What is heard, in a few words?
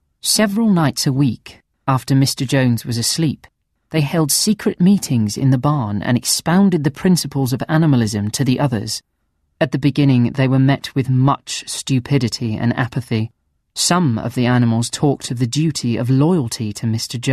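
Drama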